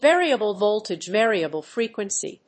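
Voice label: "variable voltage variable frequency", English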